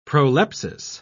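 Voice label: "prolepsis", British English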